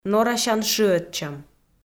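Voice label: "норасян шыӧдчӧм", Komi-Zyrian, female; audio dict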